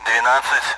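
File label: Speech Sound Russian Common AWACS Numbers; DCS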